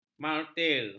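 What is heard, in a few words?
"martir", Malay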